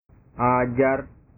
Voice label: "ajar", bahasa

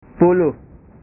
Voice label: "puluh", bahasa

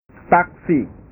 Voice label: "taksi", bahasa

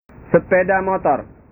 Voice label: "sepeda motor", bahasa

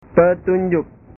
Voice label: "petunjuk", bahasa

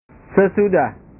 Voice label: "sesudah", bahasa

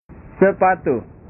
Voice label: "sepatu", bahasa

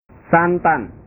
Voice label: "santan", bahasa

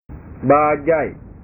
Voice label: "bajaj", bahasa

